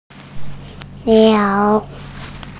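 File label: "niao3", Mandarin Chinese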